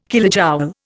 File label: "kilojoule", Indian